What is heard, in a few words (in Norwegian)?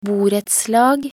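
"borettslag", Norwegian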